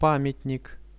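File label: "monument", Russian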